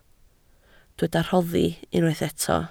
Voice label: "rhoddi", Welsh

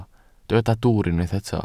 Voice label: "dwwr", Welsh

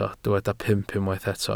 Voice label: "pump", Welsh

